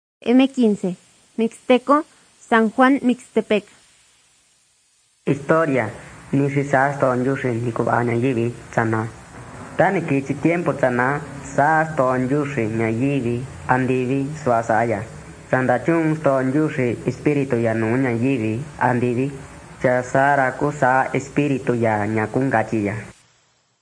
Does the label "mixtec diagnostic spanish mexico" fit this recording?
Yes